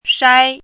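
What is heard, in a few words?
"shai1" Mandarin Chinese, high level tone; chinese-voice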